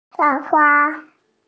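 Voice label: "sha1 fa1", Mandarin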